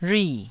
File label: "rhui4", taiwanese